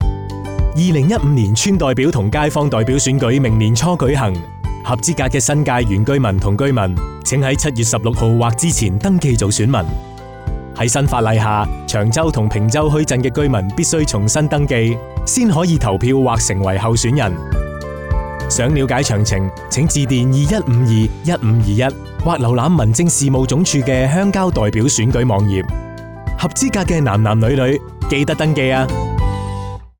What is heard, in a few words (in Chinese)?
Cantonese; 電台宣傳聲帶; 選民登記; 2015 年街坊代表選舉